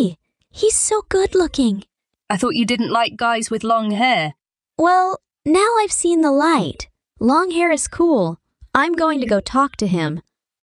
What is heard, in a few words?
Cinema Dialogue